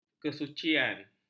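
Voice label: "kesucian", Malay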